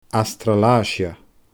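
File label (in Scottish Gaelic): "Astralaaisia", Scottish Gaelic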